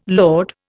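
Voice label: "load", Indian English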